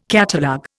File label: "catalogue", Indian